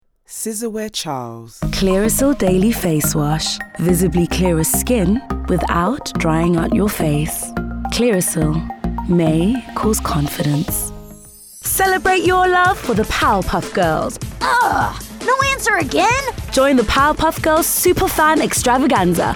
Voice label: Commercial

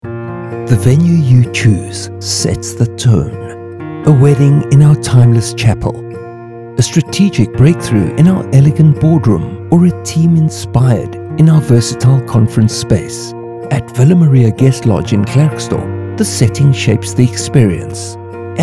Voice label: Radio Ad; Villa Maria Guest Lodge

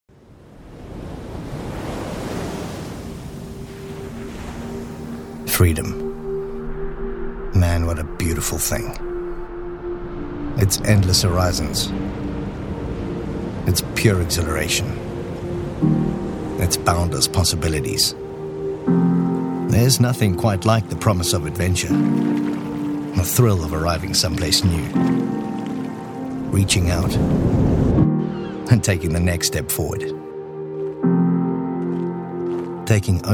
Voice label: VO Authentic Raspy honest

